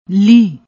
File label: "leeh", Punjabi